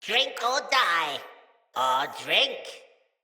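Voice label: See Player Corrupted Sprouts, en; Battle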